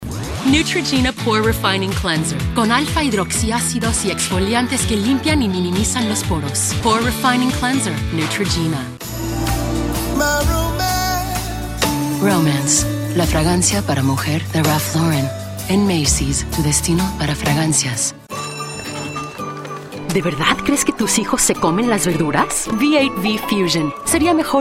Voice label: Spanish Commercial